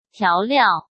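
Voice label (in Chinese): "调料", Mandarin Chinese; hsk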